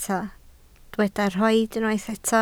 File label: "rhoid", Welsh